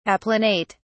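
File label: "applanate", British English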